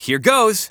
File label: VO en